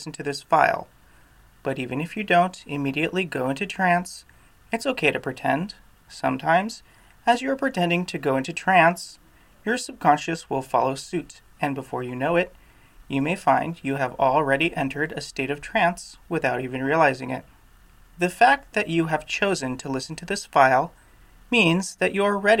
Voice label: diaper training binaural, hypno